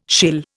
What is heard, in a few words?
"shill", Indian